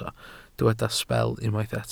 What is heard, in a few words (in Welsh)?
"sbel" Welsh